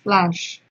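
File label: "flash" Nigerian Pidgin, female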